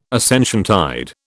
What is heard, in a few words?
"Ascensiontide", British